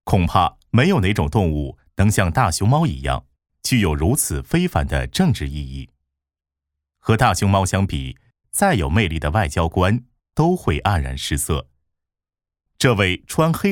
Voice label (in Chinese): 男 纪录片 熊猫外交